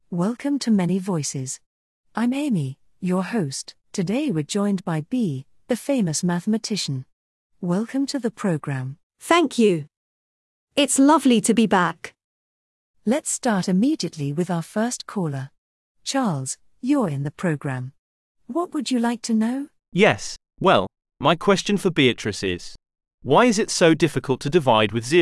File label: interview